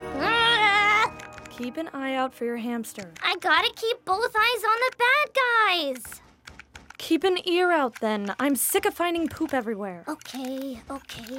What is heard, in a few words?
ANIMATION